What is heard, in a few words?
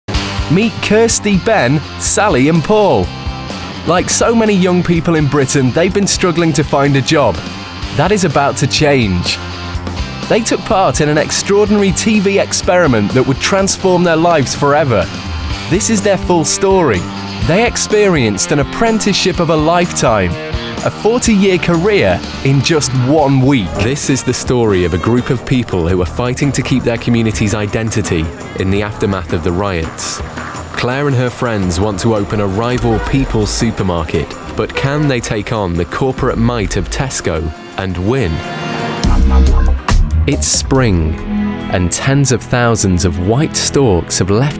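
documentary showreel, Male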